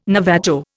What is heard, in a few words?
"Navajo", Indian